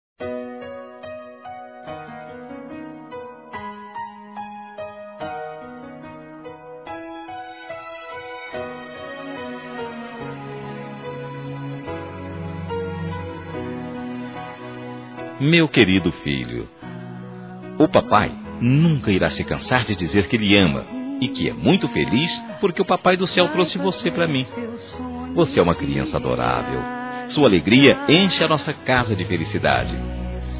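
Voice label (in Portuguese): Masculino, Telemensagens Aniversários; FILHO CRIANÇA; Telemensagens 2017; Aniv Familiar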